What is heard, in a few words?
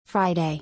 "Friday", English